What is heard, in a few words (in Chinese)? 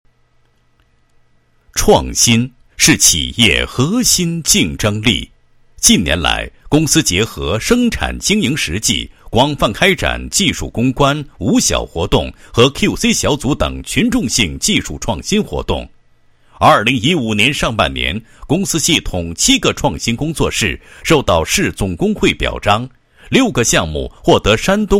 男-解说-供电公司创新创意大赛 培训, 配音案例